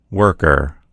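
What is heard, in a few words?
"worker", American English; gcompris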